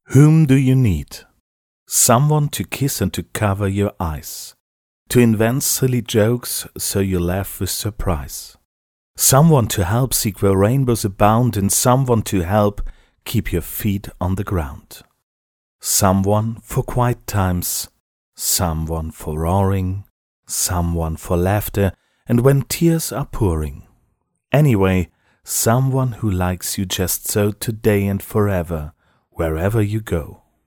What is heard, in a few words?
englisch